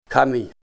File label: rock, russian